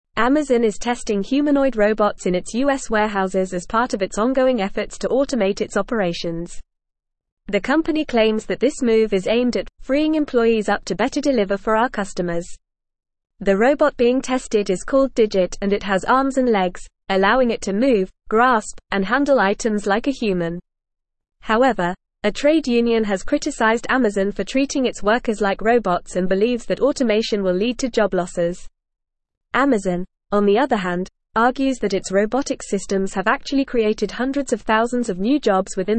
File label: English Advanced FAST Reading; English Newsroom; Amazon Tests Humanoid Robot in US Warehouses